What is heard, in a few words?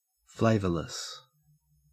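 "flavorless" English, Australian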